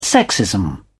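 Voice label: "sexism", British English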